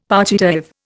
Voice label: "partitive", Indian; Dictionary